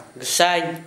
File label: Gta fowl, Dialect: Hill; fowl, hen, chicken